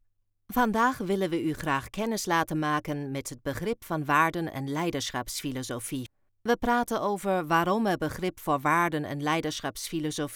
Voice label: Voiceover; NL